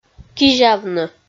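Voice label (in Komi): "кизявны", Komi, female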